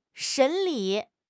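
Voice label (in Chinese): "审理", Chinese; HSK6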